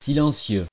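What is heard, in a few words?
"silencieux", French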